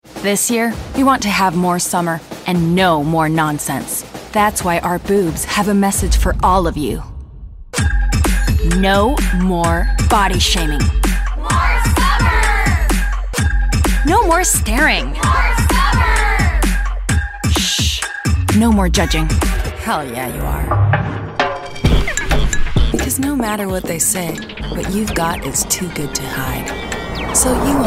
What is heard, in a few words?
Provocadora EN